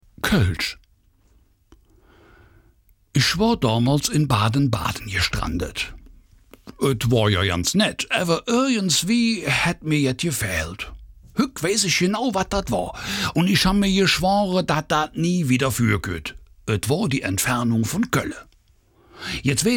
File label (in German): Dialekt Koelsch; Woroem dae janze Dress met daem Schwaadlapp